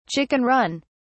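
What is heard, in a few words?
"chicken run", British English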